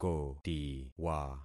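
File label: "kotiwa", concatenated syllables; Affixing experiment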